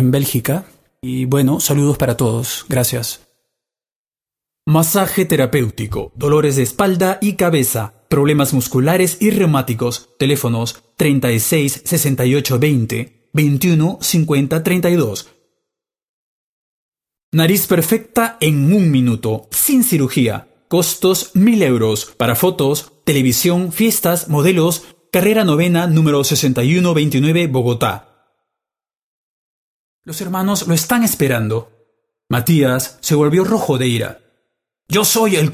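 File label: Spanish Peru, male, various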